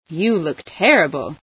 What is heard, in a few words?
you look bad, female sci